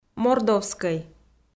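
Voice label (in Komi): "мордовскӧй", Komi, female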